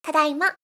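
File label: "tadaima", Japanese; gaisyutsu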